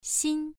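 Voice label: "xin" Mandarin Chinese, first tone, pronunciation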